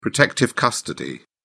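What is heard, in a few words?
"protective custody", British English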